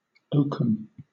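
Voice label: "oakum", British English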